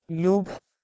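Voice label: "leubh", Proto-Indo-European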